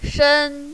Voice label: "shen1", mandarin, female

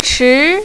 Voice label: "chi2", mandarin, female

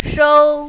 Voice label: "shou1", mandarin, female

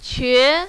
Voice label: "que2", mandarin, female

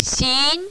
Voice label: "xin2", mandarin, female

